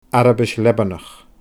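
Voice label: "Arabais Leabanach", Scottish Gaelic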